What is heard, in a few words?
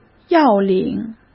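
"要领", Mandarin Chinese; chinese flashcards; hsk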